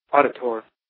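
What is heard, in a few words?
"auditor", American English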